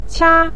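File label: "qia", Mandarin Chinese syllable, neutral tone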